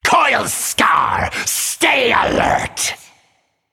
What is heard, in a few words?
Male Naga Play